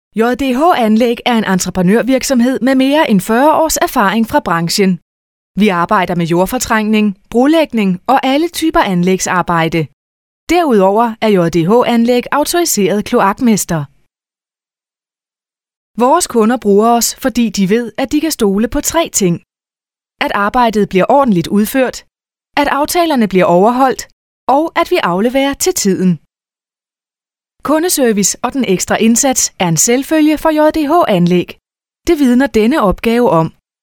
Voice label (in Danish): Virksomhedsfilm